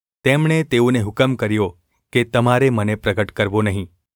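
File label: gu ulb mrk, Gujarati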